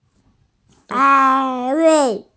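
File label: "an1wei4", Mandarin Chinese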